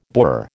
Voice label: "borer", American